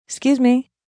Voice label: Excuse Me F, female; Etiquette Sound Effects

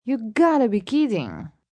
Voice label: Gotta Be Kidding, Reaction, negative, female; Exclamation Sound Effects

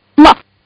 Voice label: Kid Says My; Talking; Voice

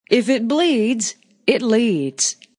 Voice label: News Female, A female news anchor; Talking

